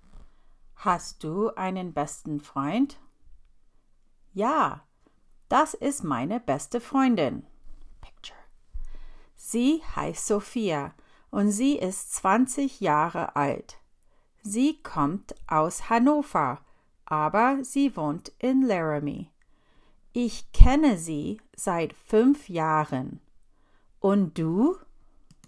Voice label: Julias journal entry recording, Deutsch; Freundschaft